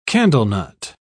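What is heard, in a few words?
"candlenut", British English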